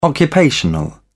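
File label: "occupational", British English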